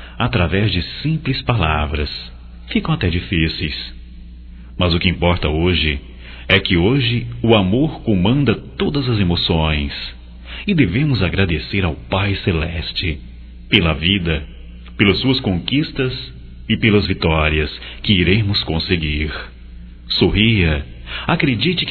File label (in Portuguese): Natal geral, masc; Telemensagens 2017; Natal & Ano Novo